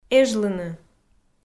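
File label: "эжлыны", Komi, female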